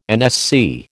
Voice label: "NSC", American